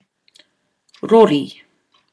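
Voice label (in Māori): "rori", Māori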